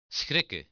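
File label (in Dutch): "schrikken", Dutch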